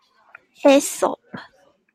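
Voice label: Taiwanese, female; Aesop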